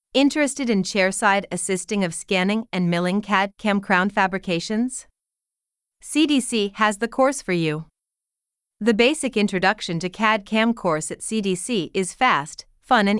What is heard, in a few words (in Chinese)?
外籍英语女 美式 广告宣传; CDC